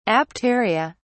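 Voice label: "apteria", British English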